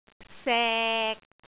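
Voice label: "sek6", Cantonese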